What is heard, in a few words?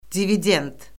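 "dividend", Azerbaijani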